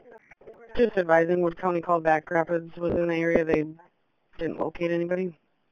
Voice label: Police Sheriff Dispatch